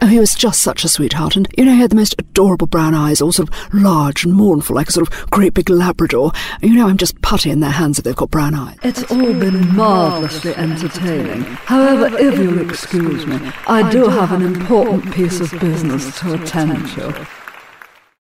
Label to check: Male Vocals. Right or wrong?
Wrong